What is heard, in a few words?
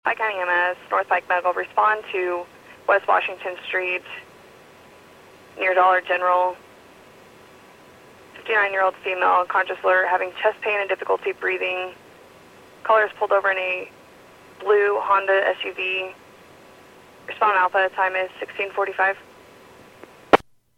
PAGING